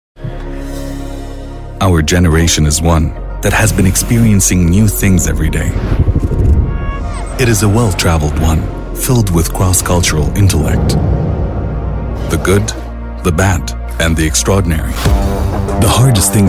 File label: NEUTRAL COMMERCIAL, EN; NEUTRAL COMMERCIAL REEL